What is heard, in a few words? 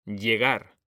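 "llegar", Spanish, male; mekanta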